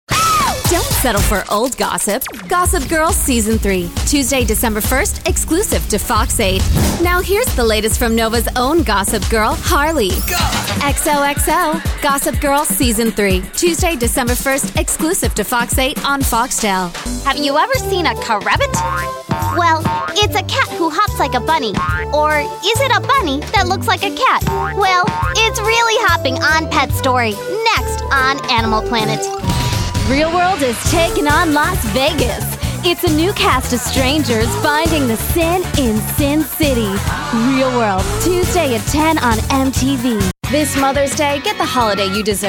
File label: promos; EM Voices